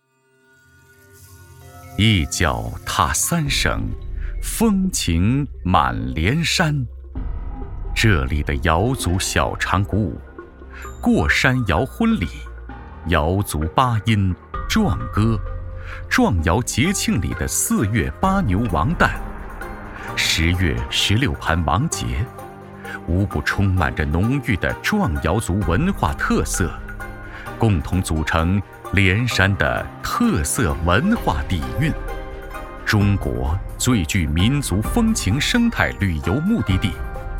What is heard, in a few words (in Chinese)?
S男 宣传片 景区风光片